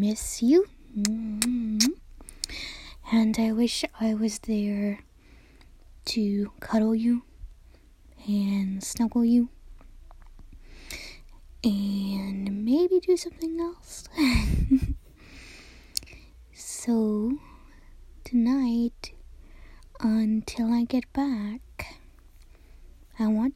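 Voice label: Female, a quick and cute I love you, cute, adorable, love, sweet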